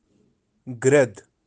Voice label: "Grad" vulture, kashmiri birds

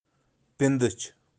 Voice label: "Pindich", birds of kashmir

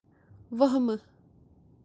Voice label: "wahmu" fear, kashmiri

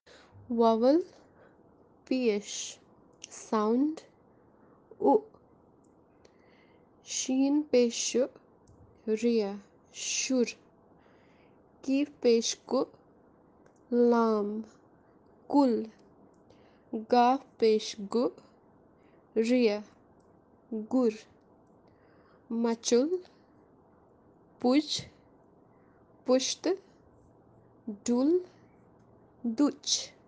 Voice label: Vowel kashmiri vowels kashmiri language

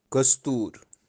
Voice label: "Kostoor", kashmiri; kashmiri birds

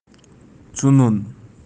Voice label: "tsunun", kashmiri

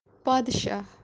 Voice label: "paadshah" king, kashmiri

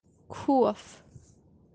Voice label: "khof" kashmiri fear

